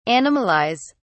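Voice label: "animalise", British English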